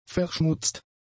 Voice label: "verschmutzt", German; adjectives